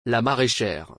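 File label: "La maraîchère", French; سبزی فروش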